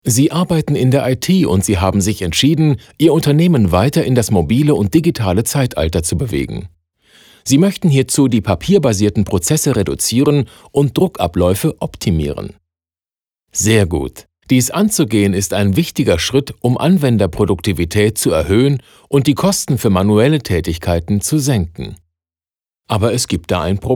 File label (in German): Image Erzaehler MIX